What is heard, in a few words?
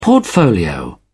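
"portfolio", British English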